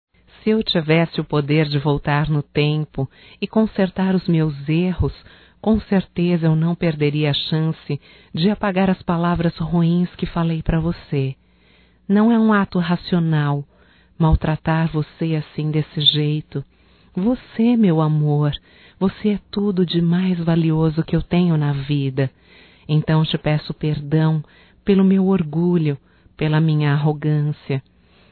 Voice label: PERDAO(F), Românticas fem

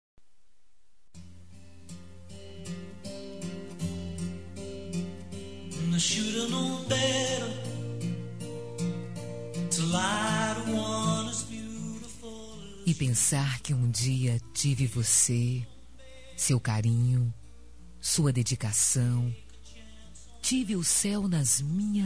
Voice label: ROM VOCE MARCOU DEMAIS FEM

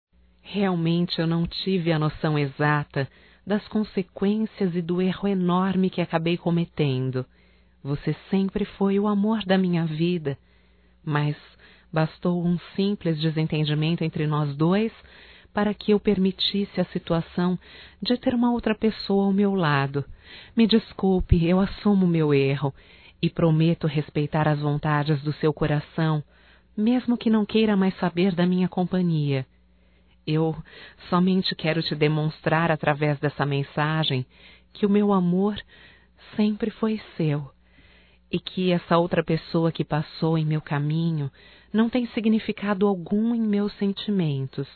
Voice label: DESCULPAS ROMâNTICA (F); Românticas

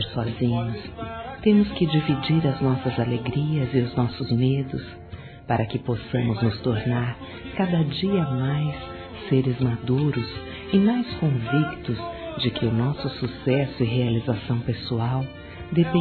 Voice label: AMIZADE F, Telemensagens

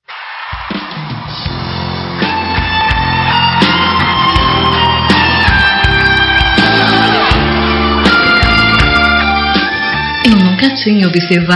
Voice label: ROM SERTANEJA PAQUERA FEM; Telemensagens; Conquistas Cantadas paqueras; Telemensagens 2017

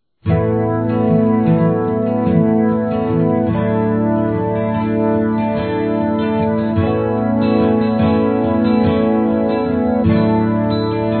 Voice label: Não Te Quero Mais Feminino, Telemensagens Românticas

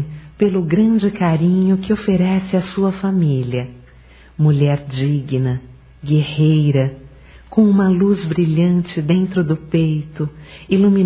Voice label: Dia das Mães Feminino, Telemensagens, Só Voz; Telemensagens 2017

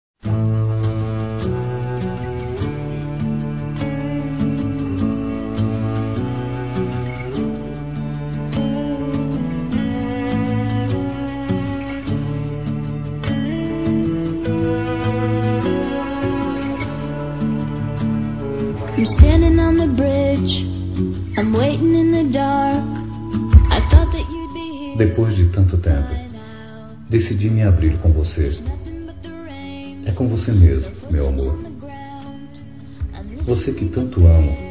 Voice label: Masculino, Dia Dos namorados; Conquista; Telemensagens 2017

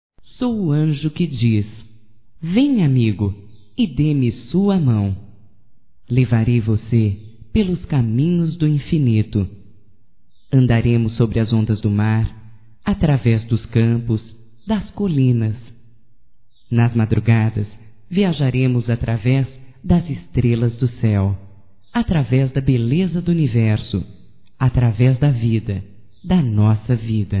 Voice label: AMIZADE, fem; Amizades; Telemensagens 2017

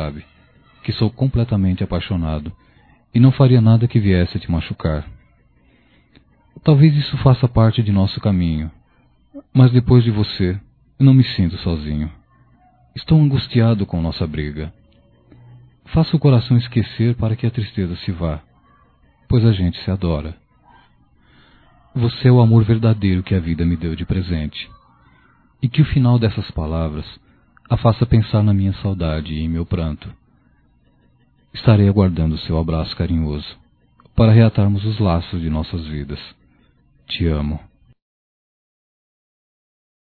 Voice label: Porque Brigamos Masculino

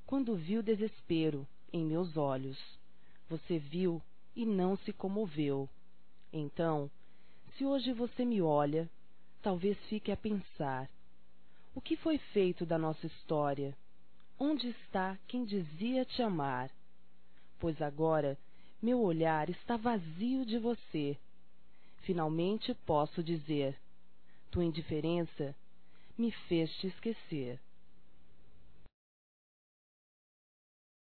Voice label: Saudade Poesia Feminino; Saudades

